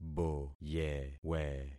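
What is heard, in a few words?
"boyewe", concatenated syllables; Affixing experiment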